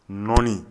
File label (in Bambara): "nɔni", Bambara